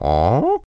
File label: Confused